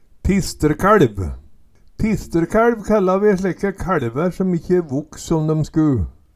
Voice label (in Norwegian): tistrekæLv, Numedalsmål